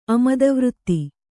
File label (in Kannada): "ಅಮದವೃತ್ತಿ", Kannada